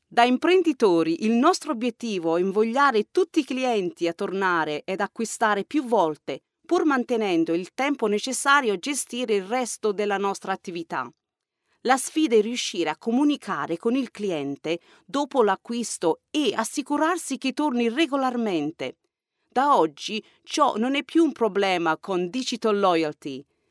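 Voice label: Italian female voice over, upbeat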